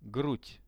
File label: "grud", Russian; грудь